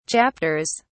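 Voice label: "chapters", British English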